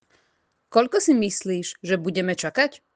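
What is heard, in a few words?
"Koľko si myslíš, budeme čakať", Slovak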